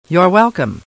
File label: welcome, LCARS Audio